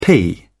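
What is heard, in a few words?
"pee", English, British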